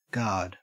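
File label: "guard" Australian English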